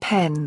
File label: "pen", English; express english